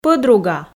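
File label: "пӧдруга", Komi, female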